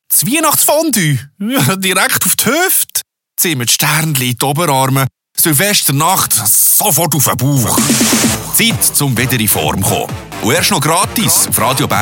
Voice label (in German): Trailer; Update Fitness Radio Bern1